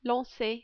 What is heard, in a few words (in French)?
"lancer", French